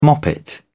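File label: "moppet", British English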